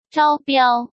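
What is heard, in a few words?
"招标", Mandarin Chinese; hsk; chinese flashcards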